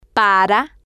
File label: "para", Spanish